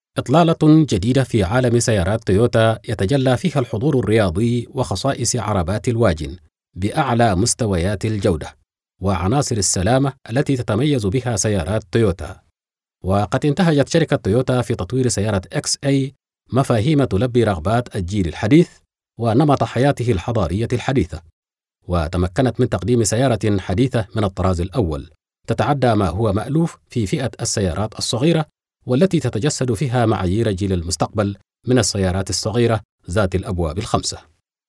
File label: Arabic